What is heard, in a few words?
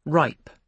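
"ripe", British English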